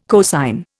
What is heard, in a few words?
"cosine", Indian